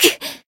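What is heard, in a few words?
Voice damaged, Japanese; menesia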